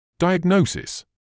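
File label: "diagnosis", British English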